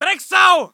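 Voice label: voice german infantry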